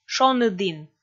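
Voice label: "шоныдін", Komi, female; kpv audio dict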